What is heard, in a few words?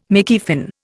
"Mickey Finn", Indian